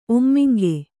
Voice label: "ಒಮ್ಮಿಂಗೆ", Kannada

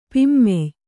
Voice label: "ಪಿಮ್ಮೆಯ್", Kannada

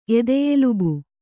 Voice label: "ಎದೆಯೆಲುಬು", Kannada

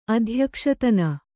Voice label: "ಅಧ್ಯಕ್ಷತನ", Kannada

